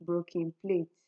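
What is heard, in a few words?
"broken plate", Nigerian Pidgin, female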